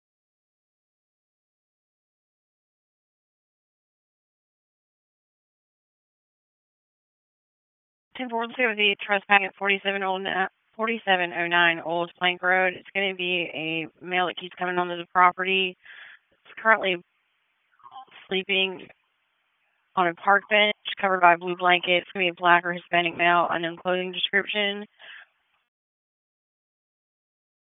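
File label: Raleigh Police Northeast District Dispatch; wake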